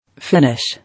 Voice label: "Finish", English